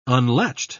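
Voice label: "unlatched", English, British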